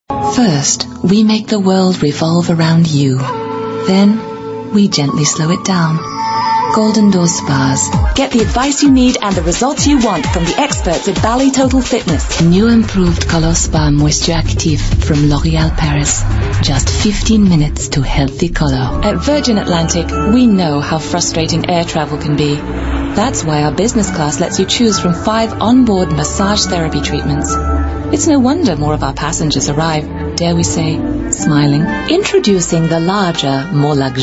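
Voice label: UK English, female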